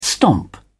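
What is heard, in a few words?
"stomp", English, British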